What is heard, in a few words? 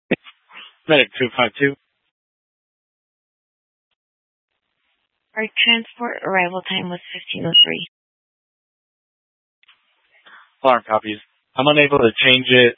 Fire Departments, scanner; C4 Gilbert, QC, Superstition; TOPAZ; Mesa Simulcast